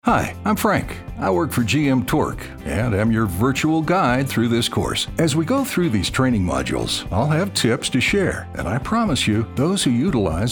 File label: Corporate Narration